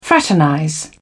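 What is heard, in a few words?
"fraternize", British English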